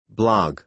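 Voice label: "blog", American English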